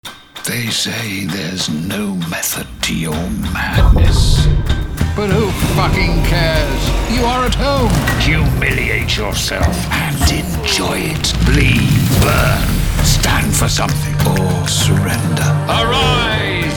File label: With Sound Logo ENG; Hornbach